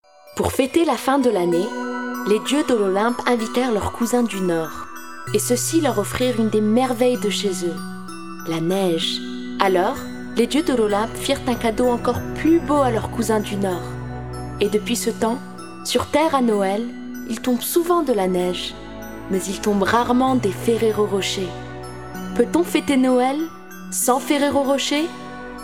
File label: female french and moroccan arabic french commercial